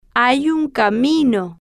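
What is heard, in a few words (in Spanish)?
hay un camino, Spanish, female, slow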